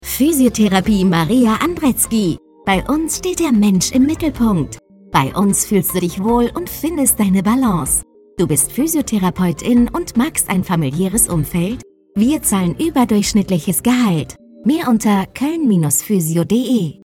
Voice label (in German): Werbespot